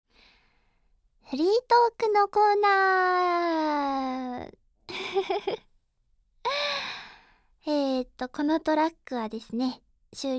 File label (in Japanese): フリートークのコーナー, ASMR